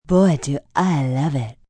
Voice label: I Love It, Sexy, fun, female, subtle Valley girl accent